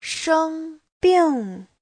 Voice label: "sheng1bing4", Chinese